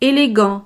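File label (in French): "elegant", French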